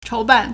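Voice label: "chóubàn", Chinese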